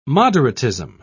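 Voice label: "moderatism", British English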